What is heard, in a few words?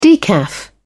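"decaf", English, British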